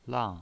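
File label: "lang", Mandarin Chinese, fourth tone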